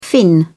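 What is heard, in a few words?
"finn", British English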